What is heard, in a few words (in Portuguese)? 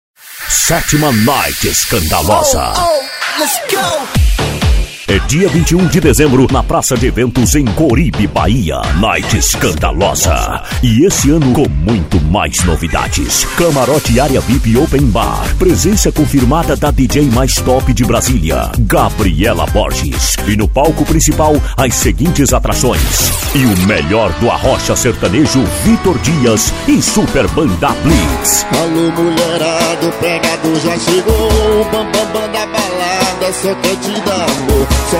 anuncio carro de som; night skandalosa